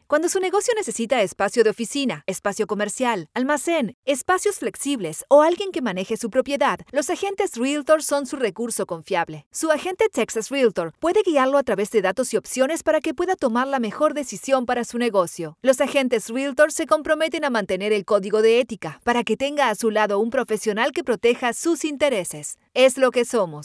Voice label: Commercial Spanish; Texas; REALTORS